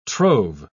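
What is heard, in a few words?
"trove", British English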